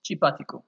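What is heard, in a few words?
"cheap article", Nigerian Pidgin, male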